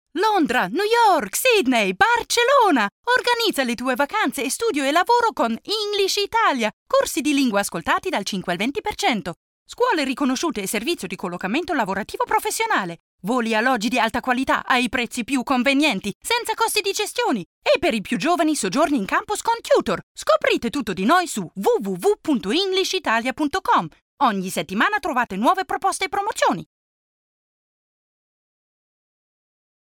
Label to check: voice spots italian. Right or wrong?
Right